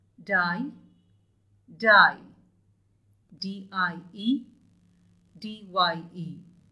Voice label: "Die" "dye"